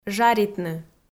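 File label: "жаритны", Komi, female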